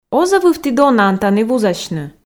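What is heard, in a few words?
"оз-ӧ вывті донӧн тані вузасьны", Komi, female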